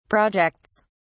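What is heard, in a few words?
"projects", British English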